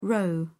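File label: "roe deer", British English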